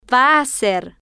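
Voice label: "va a hacer", Spanish, female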